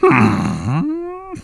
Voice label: Frustrated; Match Master frustrated